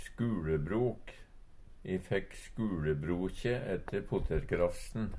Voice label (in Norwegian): "skuLebrok", Numedalsmål; stive musklar, støl; E fekk skuLebrokje etter potetergrafsten.; Uttrykk